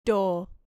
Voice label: "door", English

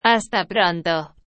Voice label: see you soon hasta pronto, Argentina

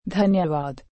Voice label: "Dhanyavad" Thank You

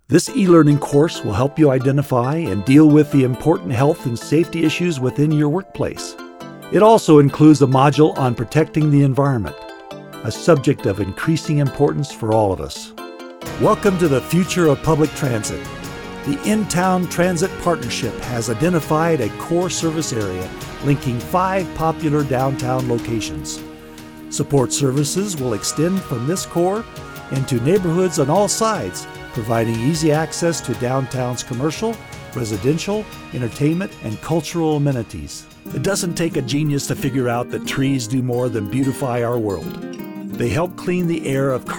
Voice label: NARRATION

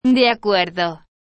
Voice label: de acuerdo, Spain

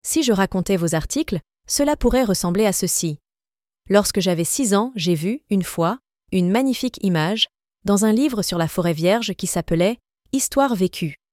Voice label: Premium French Female

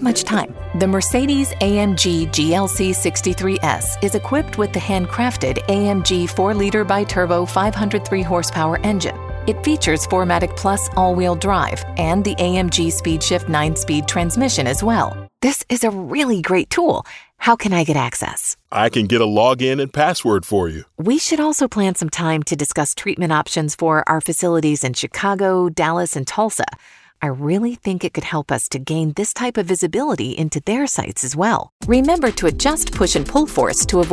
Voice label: E Learning

